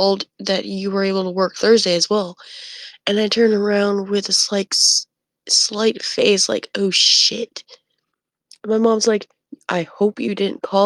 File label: voice message